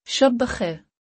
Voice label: Good night. Urdu; Basic Greetings and Courtesy